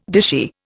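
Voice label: "dishy", Indian English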